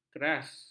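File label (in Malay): "keras", Malay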